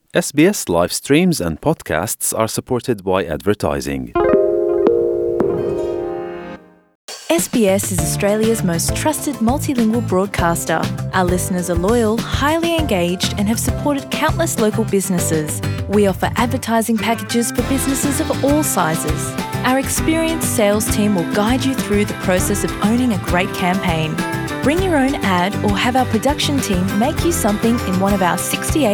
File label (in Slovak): slovak; sbs